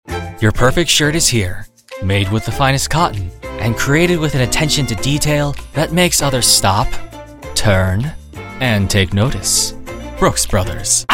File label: commercial; winter